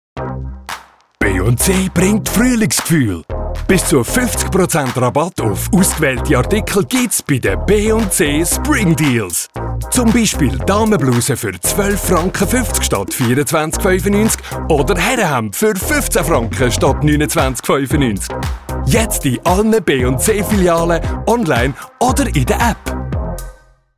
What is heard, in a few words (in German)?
werbung; bc spring deals; Dominik Dachs und d Katzepirate